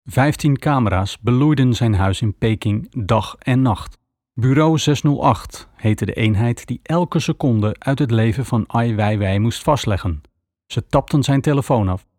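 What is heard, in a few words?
Language Dutch Documentary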